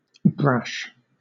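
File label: "brash", British English; pronunciations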